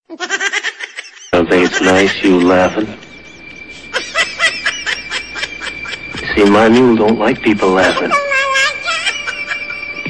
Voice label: Comedy

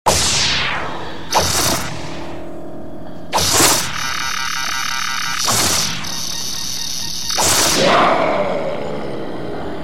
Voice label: Predator Voice Sms

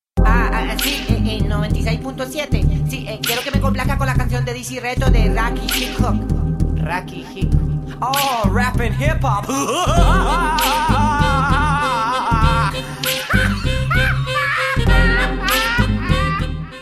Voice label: Funny Radio Request

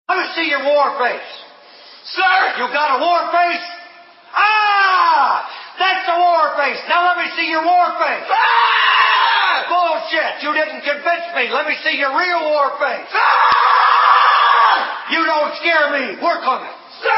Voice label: Drill Instructor

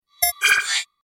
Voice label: Robotic Message

